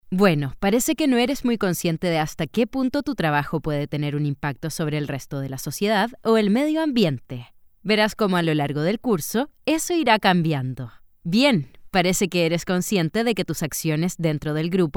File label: locutora chilena chilean voiceover; locutores chilenos